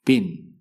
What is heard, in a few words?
"pin", english; grade1; short vowels